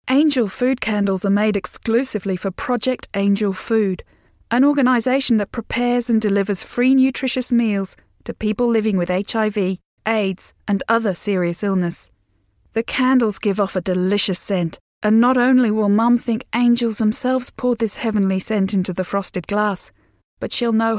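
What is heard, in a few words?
Australian English Commercial; GoLocalise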